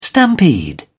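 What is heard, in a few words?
"stampede", British English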